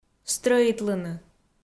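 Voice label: "стрӧитлыны", Komi, female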